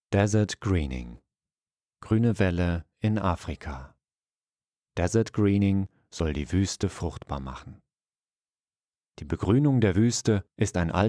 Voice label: Voice Over Promotion; Voice Over Demo

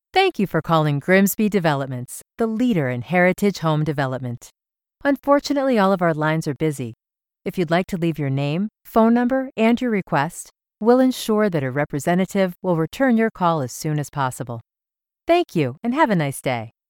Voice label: IVR

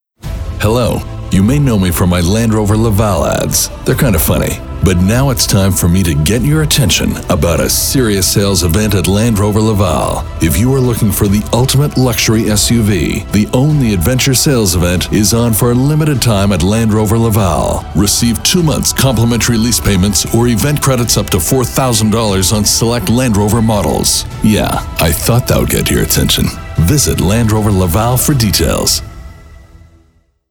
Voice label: Radio Ad - Commercial; Land Rover

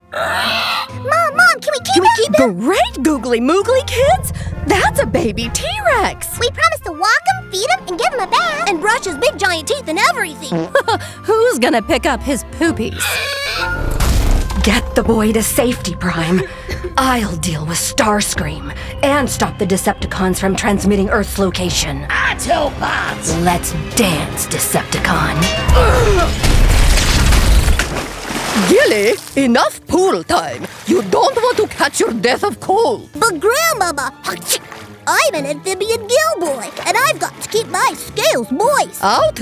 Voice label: Animation

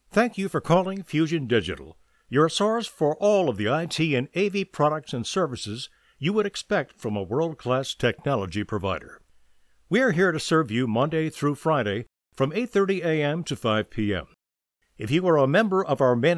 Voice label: IVR message; Fusion Digital

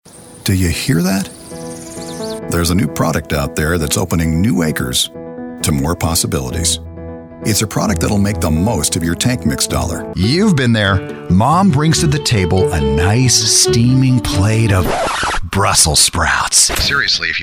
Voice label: commercial voice-over

